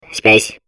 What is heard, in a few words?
babble; Portal 2